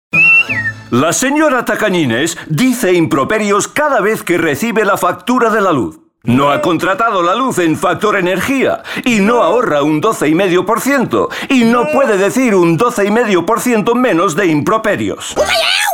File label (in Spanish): Advert Castellano cuña radio; FactorEnergia